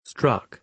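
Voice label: "struck", British English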